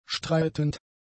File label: "streitend", German; adjectives